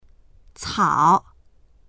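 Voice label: "cao3", Chinese; 草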